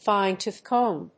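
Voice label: "fine-tooth comb", English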